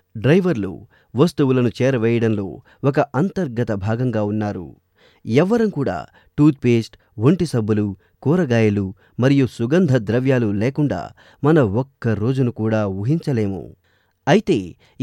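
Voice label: narration telugu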